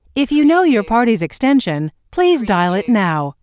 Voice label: US female phone prompt If you know your partys extension please dial it now; lynne publishing